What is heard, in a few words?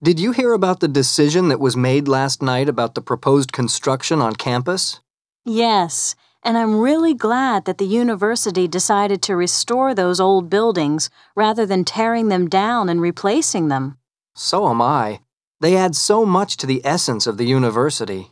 dialog